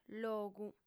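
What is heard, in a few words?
"logu", female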